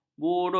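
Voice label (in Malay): "buruj", Malay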